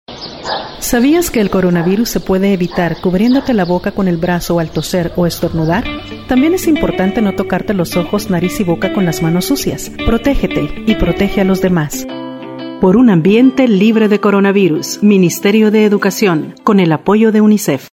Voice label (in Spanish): Evitar contagio espanol; prevencion